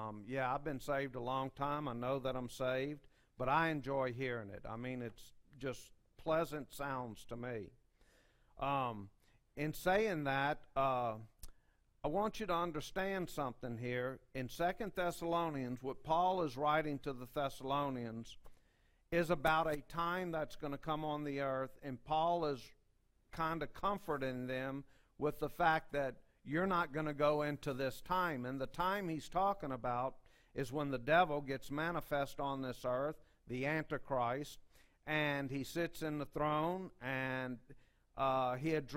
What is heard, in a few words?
Preaching